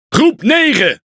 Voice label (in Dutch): Speech Pilots Fighter, Languages NL; World Of Warships